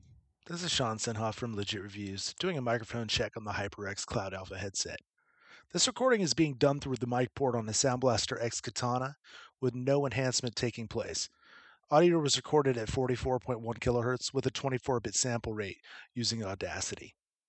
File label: mic check audio; HyperX Cloud Alpha